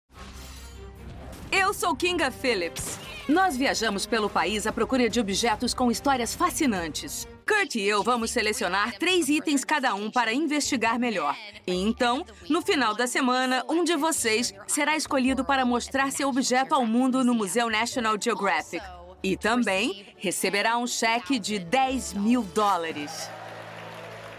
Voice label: Voice Over; National Geographic Channel